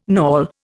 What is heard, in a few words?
"knawel", Indian